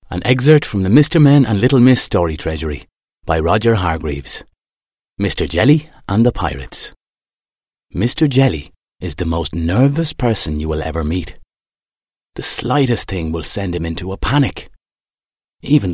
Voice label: Audiobook